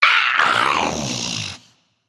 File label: Female Naga Death